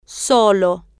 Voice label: "solo", spanish

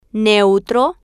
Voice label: "neutro", spanish

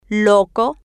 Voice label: "loco", spanish

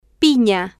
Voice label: "pina", spanish

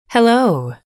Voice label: "hello", English

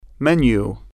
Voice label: "menu", spanish

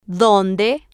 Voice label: "donde", spanish

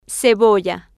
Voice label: "cebolla", Spanish

